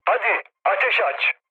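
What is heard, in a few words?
attack position, mood med, Turkish; speech; War Thunder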